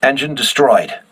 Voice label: voice message engine destroyed, crew ships english